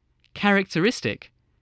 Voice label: "characteristic", spelling